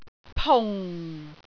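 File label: "pung4", Cantonese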